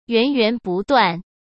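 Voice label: "源源不断", Mandarin Chinese; hsk; chinese-flashcards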